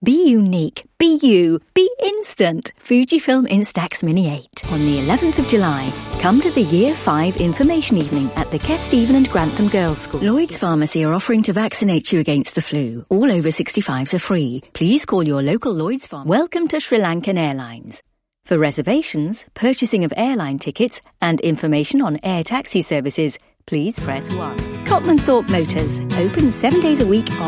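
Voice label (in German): British English, Werbung Variabel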